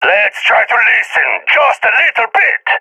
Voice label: request quiet, balkan; vo; balkan epic